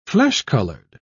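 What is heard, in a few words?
"flesh-colored", British English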